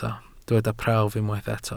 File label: "prawf", Welsh